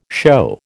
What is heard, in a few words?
"show", American